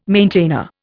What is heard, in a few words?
"maintainer", Indian